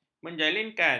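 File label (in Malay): "menjalinkan", Malay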